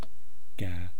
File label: "kaa", east cree syllabics